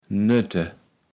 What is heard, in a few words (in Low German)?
"Noette", Platt